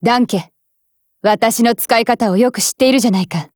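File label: Feed, Japanese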